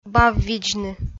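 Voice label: "баввидзны", Komi-Zyrian, female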